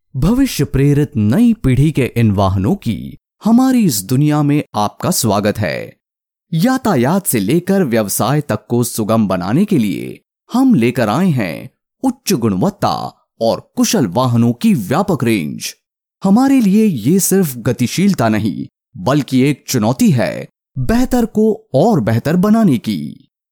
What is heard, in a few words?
Hindi Corporate